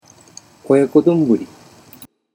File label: "oyakodonburi", Japanese